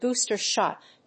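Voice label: "booster shot", English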